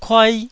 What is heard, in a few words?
"kuai1", Taiwanese syllable